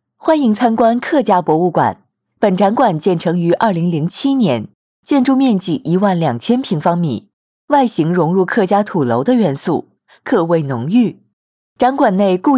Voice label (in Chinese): 大气稳重